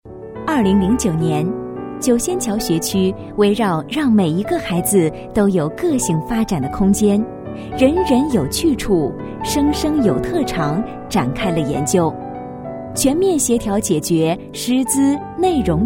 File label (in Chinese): 女 国 大音